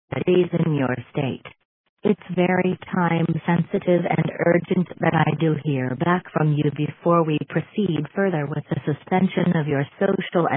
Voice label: Voicemail